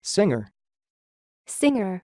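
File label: "Singer" American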